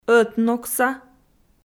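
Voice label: "ӧтногса" Komi, female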